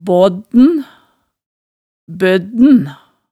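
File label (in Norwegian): "baadn, bødn", Hemsedal dialect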